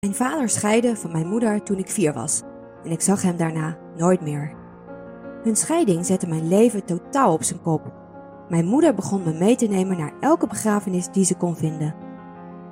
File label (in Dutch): Educatie YouTube, voice